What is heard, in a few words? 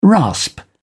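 "rasp", British English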